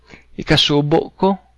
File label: sorry, cebuano